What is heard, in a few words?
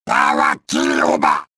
anger, trandoshan; XBOX